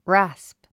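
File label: "rasp", English word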